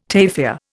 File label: "tafia", Indian